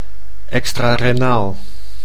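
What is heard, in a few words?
"extrarenaal", Dutch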